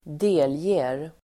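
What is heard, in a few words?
"delger", Swedish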